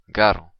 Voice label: be careful, occitan